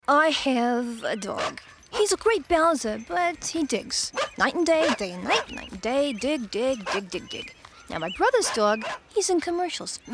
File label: character